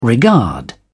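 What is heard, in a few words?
"regard", British English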